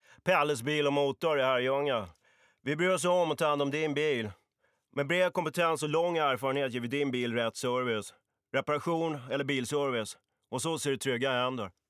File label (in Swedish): com swe